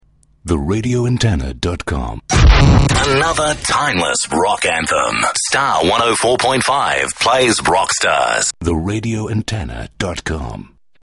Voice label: sweeper; Aircheck; Star; Timeless Rock Anthem; 2008